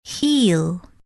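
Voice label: "heel", English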